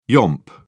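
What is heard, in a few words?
"yomp", British English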